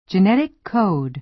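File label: "genetic code", American English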